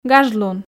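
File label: "гаж лун", Komi, female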